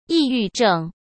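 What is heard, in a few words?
"抑郁症", Mandarin Chinese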